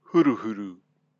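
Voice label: "huruhuru", Maori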